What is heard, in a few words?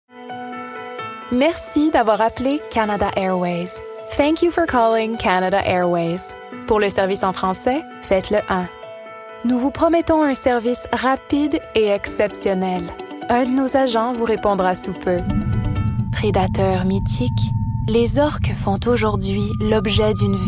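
French Canadian, narration; GoLocalise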